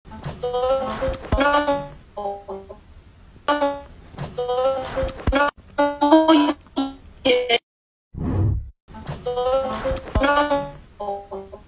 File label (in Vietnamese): Tieng re mic mang lag khi hoc zoom giong nu